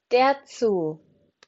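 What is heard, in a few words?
"Zoo", German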